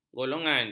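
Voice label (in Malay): "golongan", Malay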